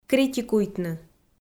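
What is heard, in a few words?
"критикуйтны", Komi, female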